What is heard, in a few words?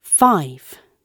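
"five", British English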